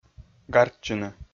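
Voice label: "гартчыны", Komi-Zyrian, male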